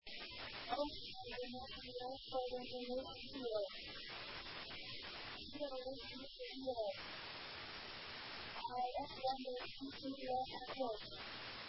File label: ATIS, Japan; osaka; Itami